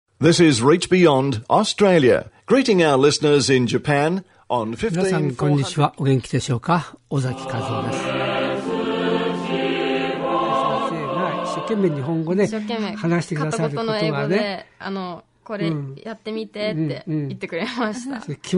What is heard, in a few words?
Japanese sampler